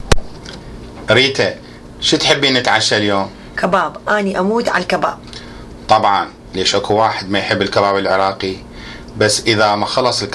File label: Dialogue about the Iraqi food, Iraqi Dialect